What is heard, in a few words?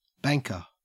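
"banker", Australian English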